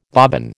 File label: "bobbin", American